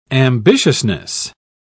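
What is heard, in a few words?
"ambitiousness", English, British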